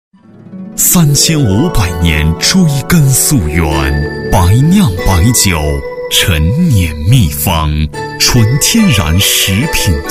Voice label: 男3-广告-酒类, 国语男声配音

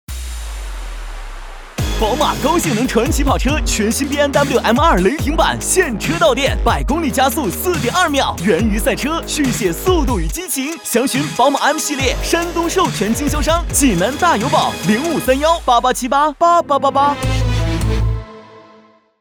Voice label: 男, 广告, 激情动感

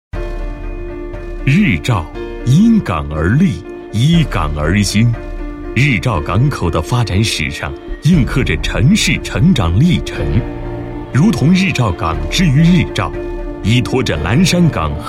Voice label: 男 政府宣传 专题片; 岚山口岸进境木材检疫处理区

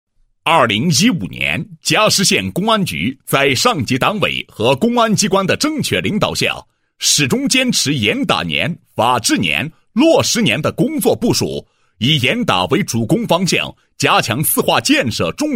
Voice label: 男 教育机构 宣传片, 配音; 育苗教育培训学校宣传片

